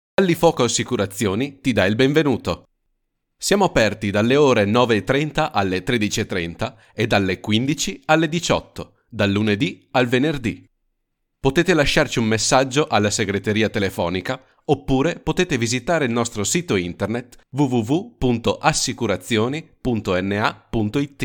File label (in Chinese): 意大利语男 外语 小语种